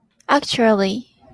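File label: "actually", Japanese, female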